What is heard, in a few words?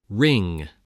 "wring", voice, english